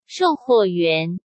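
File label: "售货员" Mandarin Chinese; hsk; chinese-flashcards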